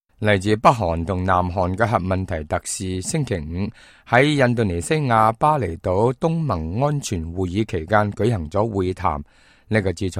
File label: cantonese, news